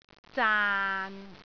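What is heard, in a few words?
"zaan6", Cantonese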